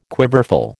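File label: "quiverful", American English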